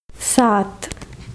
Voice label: "Seven", hindi